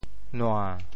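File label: "nua~7", Teochew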